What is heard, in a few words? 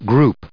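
"groups", American English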